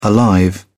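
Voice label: "alive", British English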